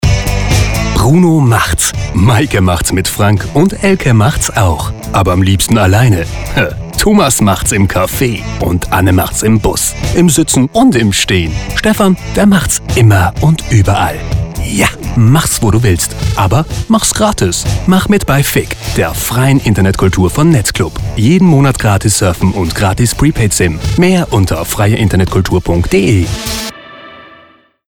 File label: radio